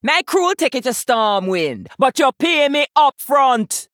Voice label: Female Troll; Hooktusk; Mission2 Victory